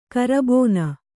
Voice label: "ಕರಬೋನ", kannada

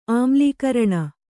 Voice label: "ಆಮ್ಲೀಕರಣ", Kannada

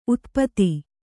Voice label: "ಉತುಪಾತ", Kannada

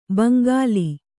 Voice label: "ಭೋಂಕಲ್", Kannada

